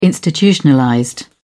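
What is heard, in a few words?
"institutionalized", British English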